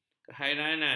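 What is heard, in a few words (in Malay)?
"kehairanan", Malay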